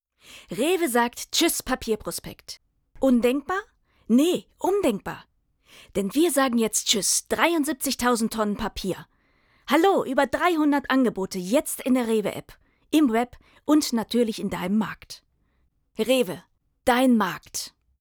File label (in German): Spot